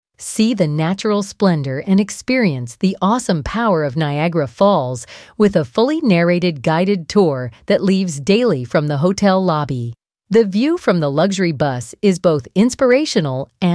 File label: female